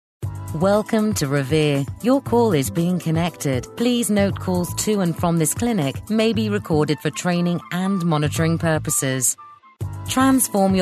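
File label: English, UK, female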